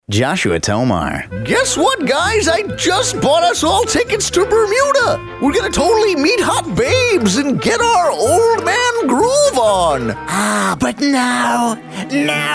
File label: Character Demo